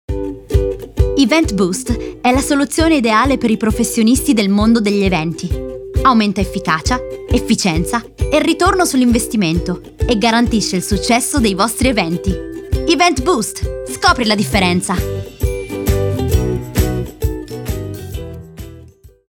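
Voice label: Italian, Commercial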